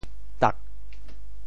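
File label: "tah4", Teochew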